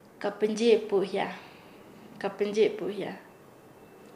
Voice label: "encontrar", Nasa Yuwe; paez

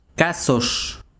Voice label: besiro cuento, chiquitano

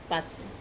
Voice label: "pas", rice, Wamesa

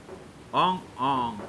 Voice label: "yellow", Pingelapese

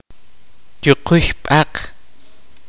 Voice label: kaqchikel; Bone curer

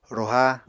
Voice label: "roha", Apatani

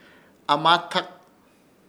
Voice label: "AMATAK", Ishir Chamacoco; comilon

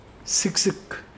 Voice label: little, Mokilese

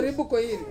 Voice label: "ribu koiri", one thousand, Ansus

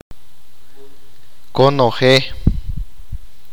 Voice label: "all", achi

